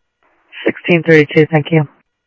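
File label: Sheriff East County, scanner audio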